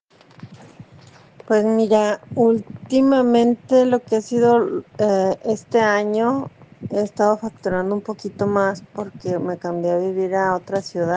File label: whatsapp ptt